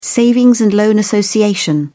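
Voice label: "savings and loan association", English, British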